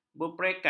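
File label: "berperekat", Malay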